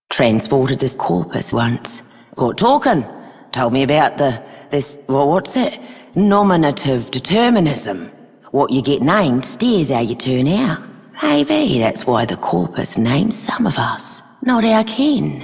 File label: Female Rail Agent, Female Two, en; Dialog; Warframe; Solaris Venus